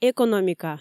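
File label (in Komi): "экономика", Komi, female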